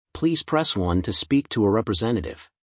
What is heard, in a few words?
menu, phone american